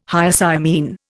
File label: "Hyoscyamine", Indian